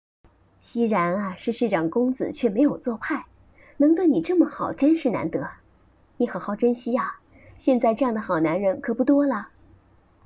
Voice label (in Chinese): 御妈音